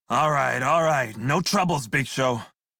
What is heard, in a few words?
Crew Follow Male en; Dialog; Warframe; Railjack Crew; Red Veil